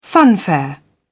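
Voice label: "funfair", British English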